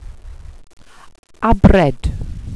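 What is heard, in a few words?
"abred", welsh pronunciations; Wales/welsh names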